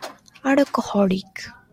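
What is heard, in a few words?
"alcoholic", Japanese, female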